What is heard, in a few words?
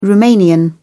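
"romanian", English, British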